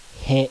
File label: "HE", kana